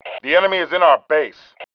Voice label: enemy in base, MALE